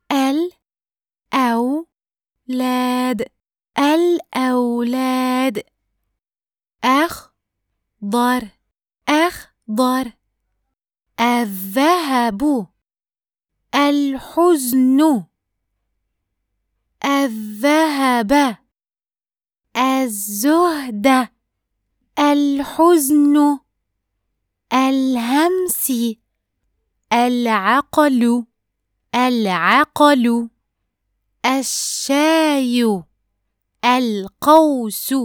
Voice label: Arabic Words, E-Learning; Alwalad App

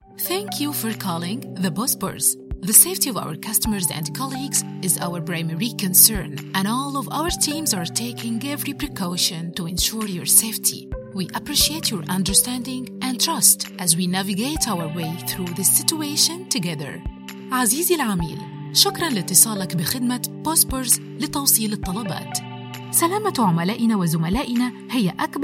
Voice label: Restaurant English Arabic, IVR; Bosporus

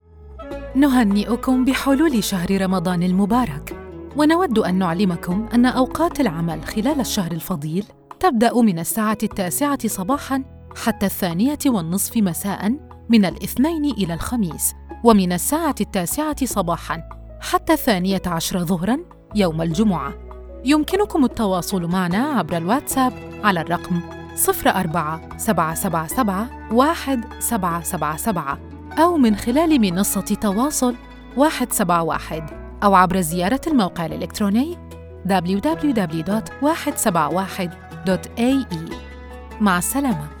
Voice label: Ramadan Message working hours IVR; Dubai Taxi

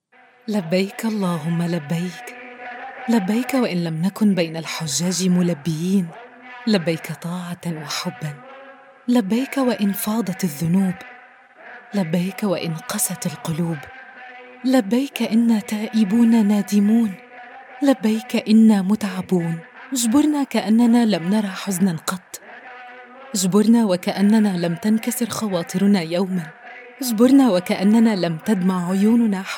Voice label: Religious, Emotional Warm calm, Narration; Hajj

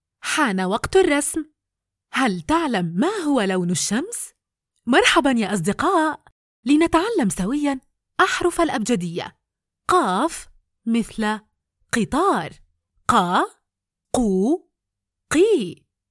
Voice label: Educational app kids

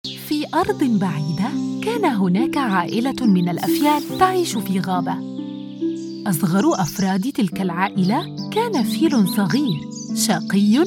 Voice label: Narration kids story, 8 characters

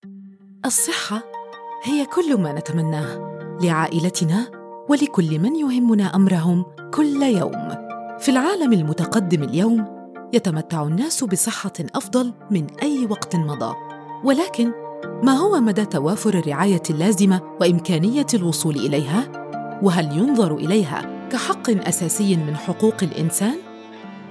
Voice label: corporate video health, Narration

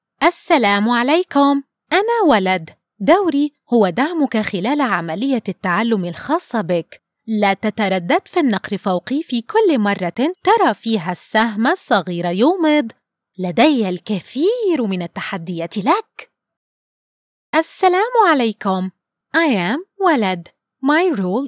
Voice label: Kids App Main character, E-Learning

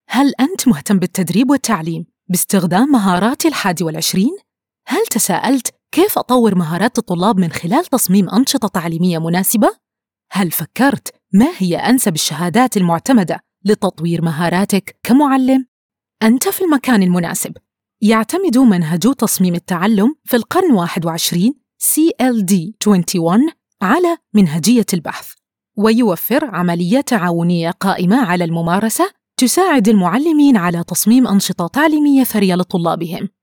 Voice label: Promo for online Course Khaliji

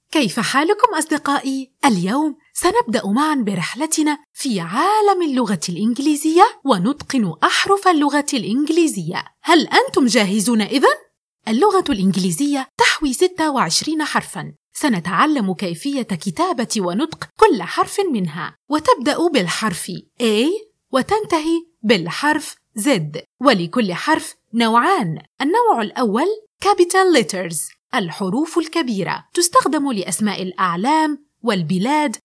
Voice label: Animation video educate; C letter

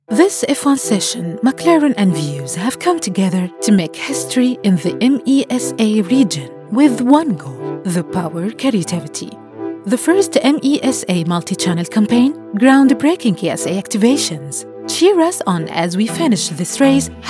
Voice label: English Narration; McLaren& Vuse

